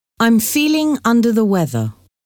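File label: I'm feeling under the weather, English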